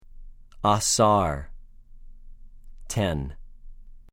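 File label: "עֶ֫שֶׂר", Hebrew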